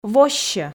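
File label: "воссьӧ", Komi, female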